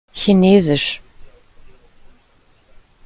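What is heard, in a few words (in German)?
"chinesisch", German; pronunciation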